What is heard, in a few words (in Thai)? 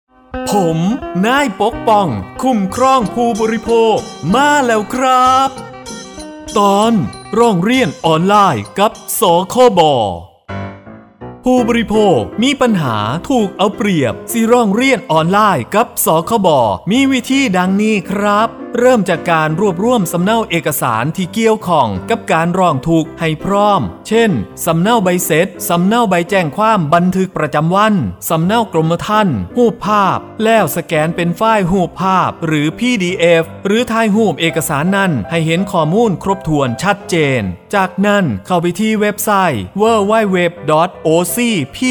สปอตวิทยุ; ภาคตะวันออกเฉียงเหนือ; สื่อประชาสัมพันธ์; สคบ.; สำนักงานคณะกรรมการคุ้มครองผู้บริโภค